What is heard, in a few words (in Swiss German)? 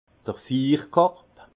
"fiirkorb", alsace; vin; chaufferette